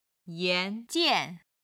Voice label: "yan2 jian4", Chinese